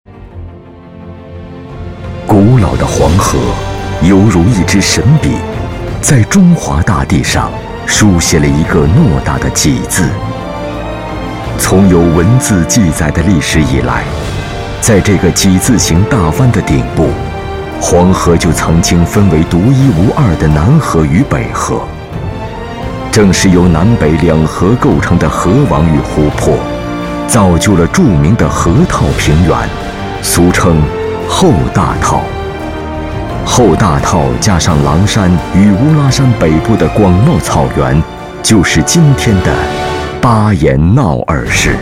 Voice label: 男 专题 地税 大气

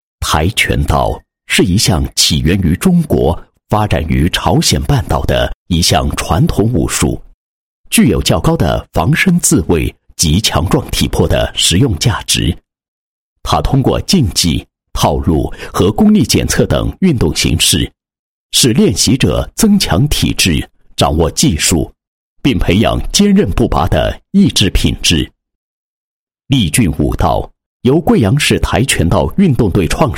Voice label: 男 企业 宣传, 配音; 励骏武道贵阳跆拳道宣传

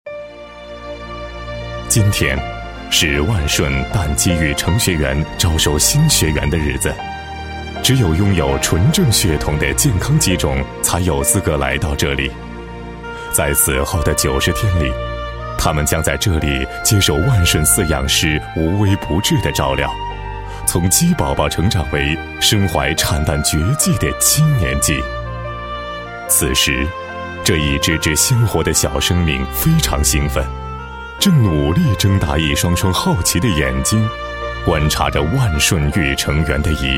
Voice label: 男 专题 抒情温馨, 国语男声配音, 浑厚; 万盛饲养师

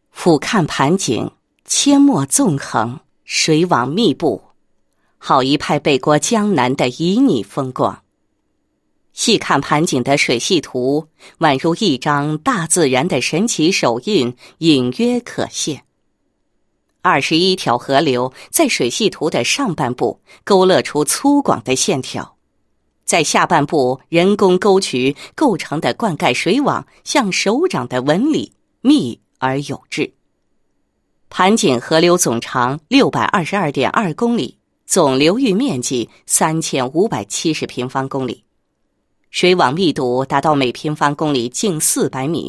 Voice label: 女 专题片; 盘锦河道清理

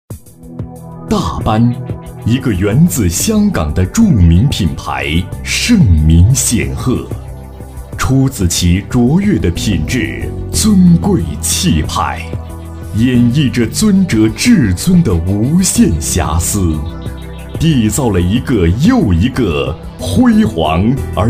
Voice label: 男80 家具品牌; 大班; 央视农广

